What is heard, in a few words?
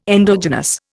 "endogenous", Indian